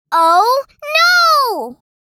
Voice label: "Oh no", girl child kid

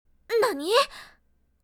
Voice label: What nani anime girl character

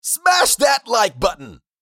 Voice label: Smash that like button social media male

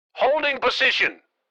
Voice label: Military radio voice command holding position

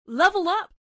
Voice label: Level up female voice

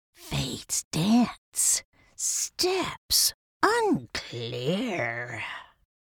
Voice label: Witch fantasy sentence fate dance

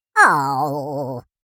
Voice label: Minion sadness voice

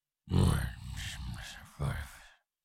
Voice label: Cartoon lion voice grunt growl

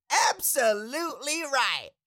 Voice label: Cartoon little monster voice; absolutely right

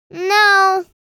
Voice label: "No" vocalization girl child kid

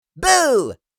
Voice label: Playful boo surprise jolly elf; christmas

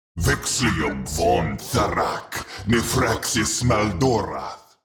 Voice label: Demon king lord spell casting

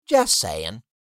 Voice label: Just saying meme voice male

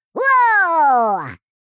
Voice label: Minion excitement voice; fantasy friends

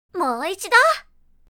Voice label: One more time anime girl character

